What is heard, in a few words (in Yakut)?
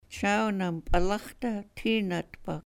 chawnam p'alax̱ ta tiinatpa, sahaptin